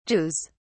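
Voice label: "jews", British English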